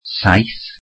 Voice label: "seven", Welsh